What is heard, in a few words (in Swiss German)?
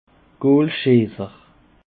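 "goolschesser", Alsatian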